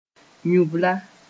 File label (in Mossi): "yũbla", moore